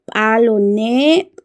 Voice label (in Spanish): "nueve", Kanjobal; Números